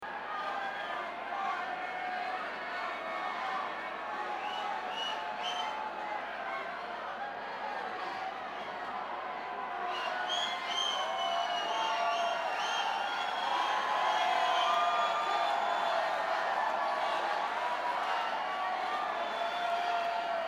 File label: Banter Crowd